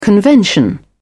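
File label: "convention", British English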